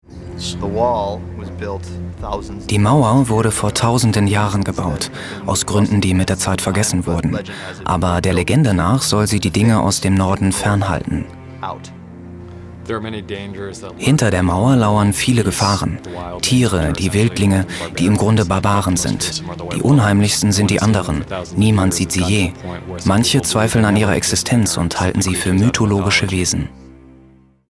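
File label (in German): Kommentar Neutral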